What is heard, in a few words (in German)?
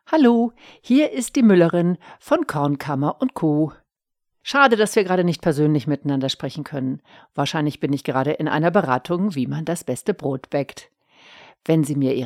Anrufbeantworter; hoerproben hoerbuchsprecherin